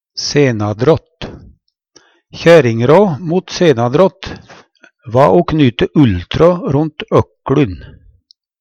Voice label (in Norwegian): "senadrått", Numedalsmål; (Kjerring)råd mot senadrått va o knyte ulltråd rundt økLun.